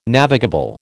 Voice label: "navigable", American